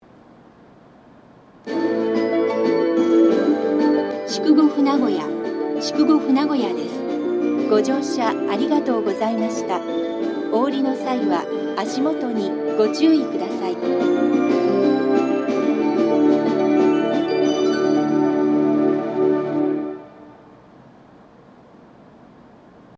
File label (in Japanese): 12番のりば到着放送 女声＋発車メロディ, 新幹線ホーム; 筑後船小屋